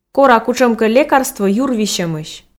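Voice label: "кора кутшӧмкӧ лекарство юр висьӧмысь", Komi, female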